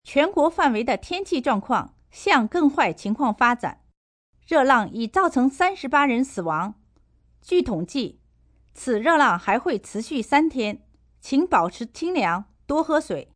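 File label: News Heat, Broadcast, news, female news item in Manderin on heat wave.; VoiceBox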